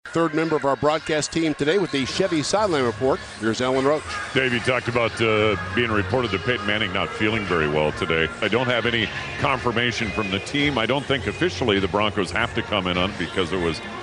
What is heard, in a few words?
radio sideline report